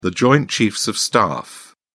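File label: "joint chiefs of staff", British English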